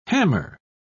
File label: "hammer", pronounce